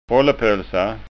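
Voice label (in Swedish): "rollepolsa", Skåne dialect Swedish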